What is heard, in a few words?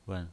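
"wen", pinyin voice, neutral tone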